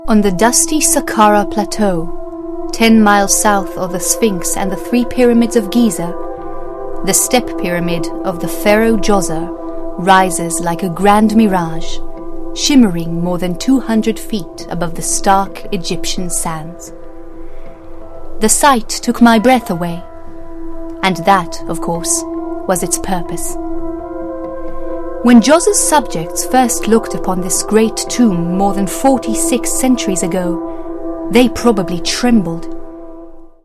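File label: Hebrew English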